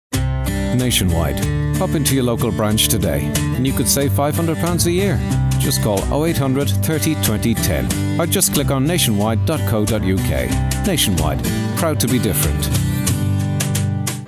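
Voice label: Irish, foreign accent voiceovers; foreign languages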